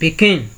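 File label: "pikin", Nigerian Pidgin, male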